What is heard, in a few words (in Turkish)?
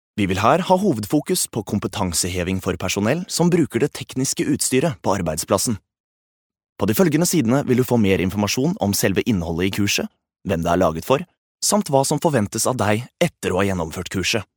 Norvecce Erkek, seslendirme; erkek sesler